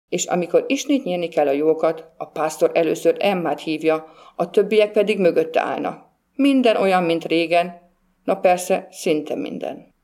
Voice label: ungarisch

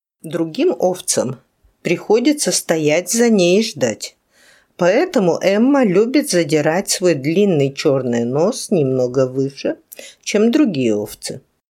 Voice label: russisch

